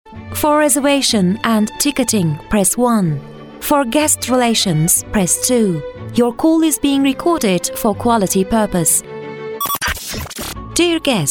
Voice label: american english voiceover female, ingilizce santral seslendirme